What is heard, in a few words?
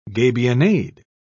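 "gabionade", British English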